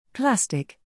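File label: "plastic", English word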